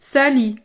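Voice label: "sali", French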